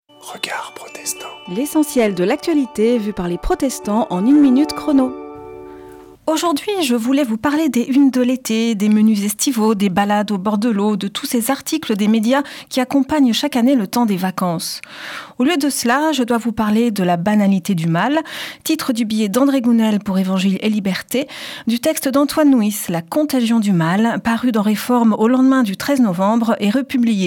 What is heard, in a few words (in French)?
Spot radio; Regards protestants